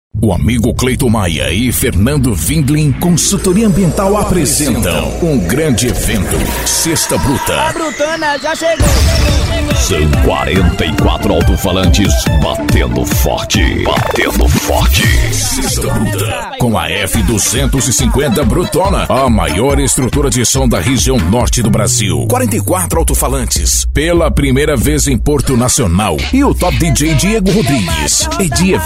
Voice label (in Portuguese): locutor; puffy publicidade